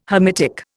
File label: "Hamitic", Indian